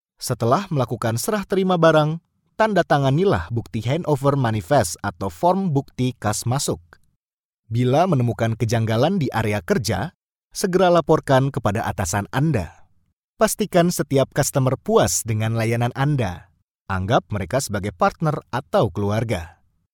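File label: Indonesian elearning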